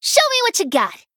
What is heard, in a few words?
Female Gnome Play